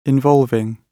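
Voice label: "involving", British English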